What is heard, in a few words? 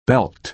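"belt", American English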